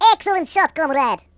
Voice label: "Excellent", Russian; hedgewars